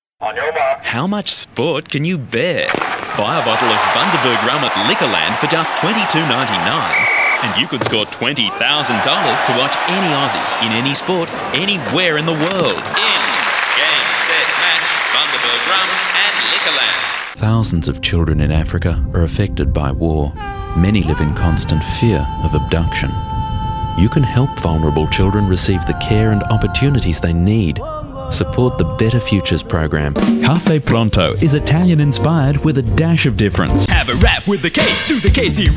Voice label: Commercials Jingles